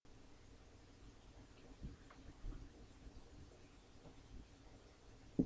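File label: "clock", spoken word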